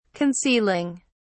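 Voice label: "concealing", British English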